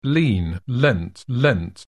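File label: "lean", English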